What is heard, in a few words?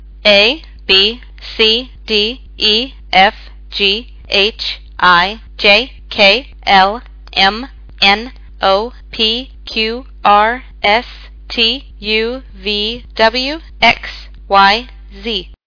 english alphabet